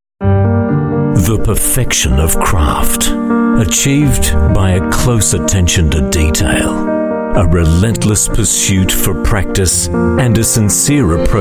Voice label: AU Mid Atlantic English Compilation, English Australian Male